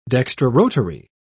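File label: "dextrorotary", British English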